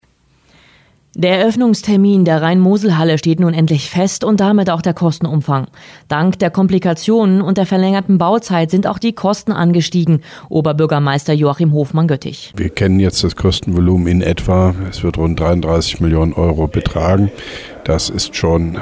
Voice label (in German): news früh